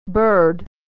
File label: "bird", pronunciation